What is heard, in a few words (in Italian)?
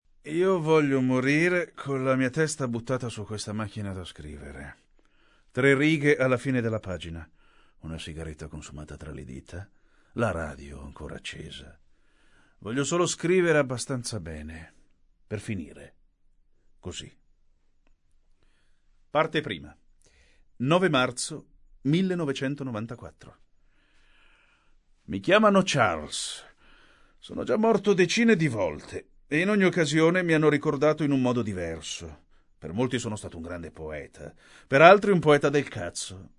audiolibro